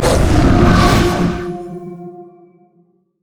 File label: wolfman hunters call; LoL; warwick